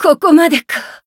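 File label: Voice retire, Japanese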